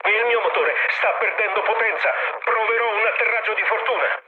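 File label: Italian damaged engine mood med, speech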